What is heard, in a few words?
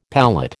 "palate", American